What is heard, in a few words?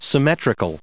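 "symmetrically", US English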